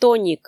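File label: "тоник", Komi, female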